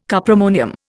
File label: "cuprammonium", Indian